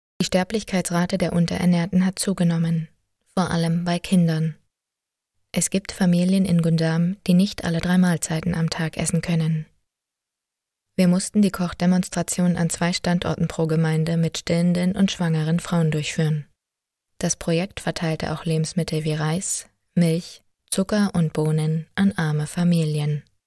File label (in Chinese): 德语女声; 外籍